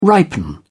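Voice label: "ripen", British English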